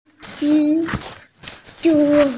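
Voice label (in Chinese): "zhi1zhu1", Mandarin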